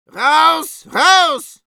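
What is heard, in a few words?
Medic battle cry, Russian; Голосовые команды медика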